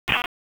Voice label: Police Northeast District Disp, p25